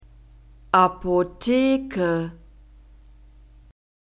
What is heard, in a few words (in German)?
"Apotheke", German; nouns gender feminine